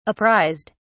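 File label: "apprised", British English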